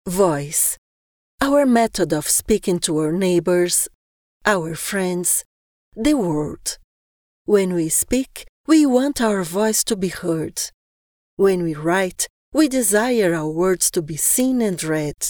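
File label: Brazilian Portuguese English female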